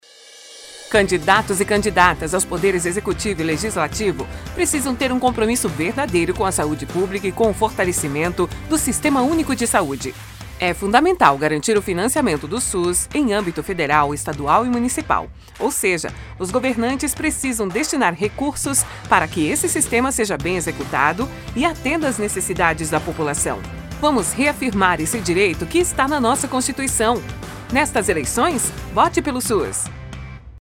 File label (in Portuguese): Spot